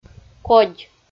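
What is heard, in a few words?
"кодь" Komi, female; audio dict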